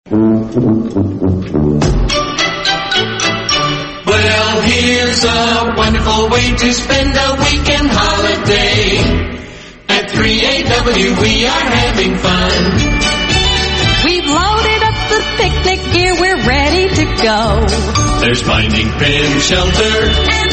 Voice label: australian otr promos and idents; 3aw weekend holiday

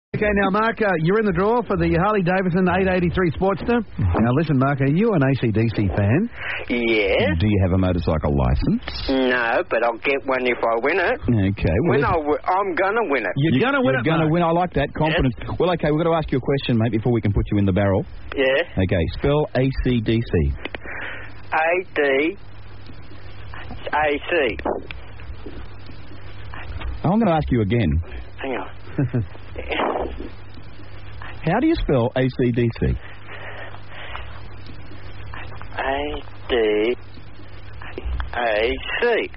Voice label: radio bloopers, australian; australian otr

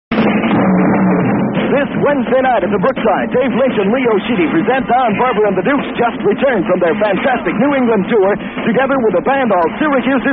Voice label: concert promo, radio spots; the brookside; syracuse ny; psychedelic promos and radio spots

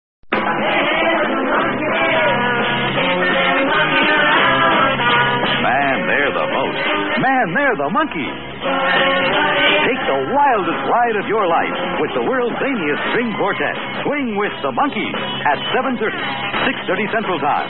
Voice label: tv promo; psychedelic promos and radio spots

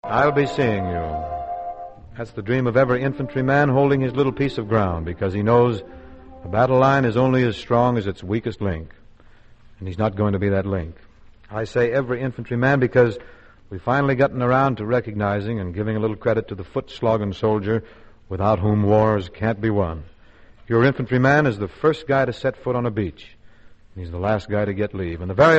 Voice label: commercials, war loan drive; bing crosby fifth

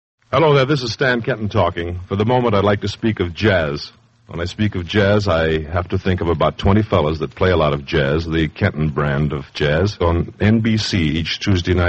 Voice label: promos for concert in miniature

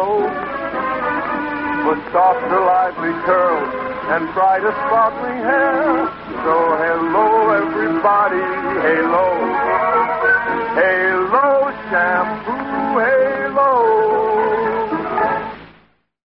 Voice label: vintage commercials shampoo; hallo

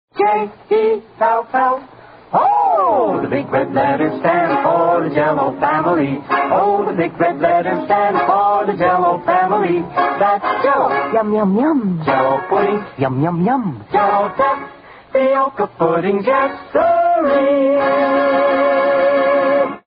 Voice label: vintage commercials jello

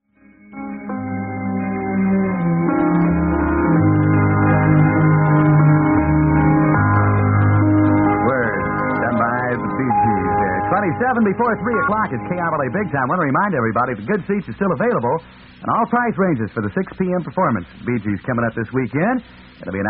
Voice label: concert spot; anaheim; psychedelic promos and radio spots

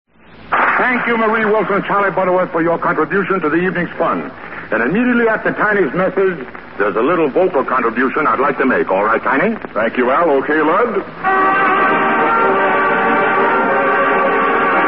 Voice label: vintage commercials lifebuoy soap

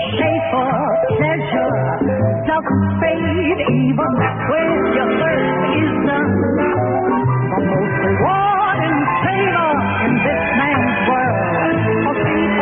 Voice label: vintage commercials beer; schaefer

